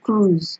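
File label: "cruise", Nigerian Pidgin, female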